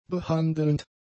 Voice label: "behandelnd", German; adjectives